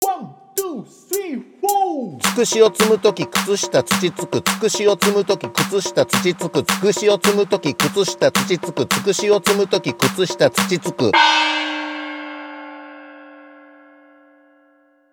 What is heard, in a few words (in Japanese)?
早口言葉リズム（中級編）